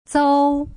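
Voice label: "zou", Putonghua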